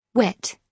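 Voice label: "whet", British English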